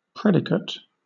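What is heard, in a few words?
"predicate", British English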